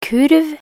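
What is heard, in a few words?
"kurv", Norwegian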